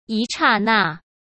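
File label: "一刹那", Mandarin Chinese; hsk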